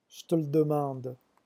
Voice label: "jete", phonetics